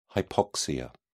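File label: "hypoxia", British English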